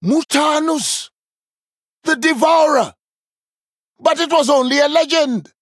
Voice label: Male Troll

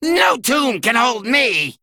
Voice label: Male Mummy (Human) Play

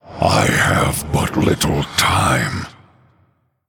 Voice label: Male Human Time

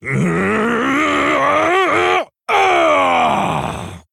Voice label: Male Orc Death; Samuro; Kurtrus

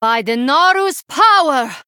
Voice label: Female Draenei Hero Power

